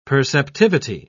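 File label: "perceptivity", British English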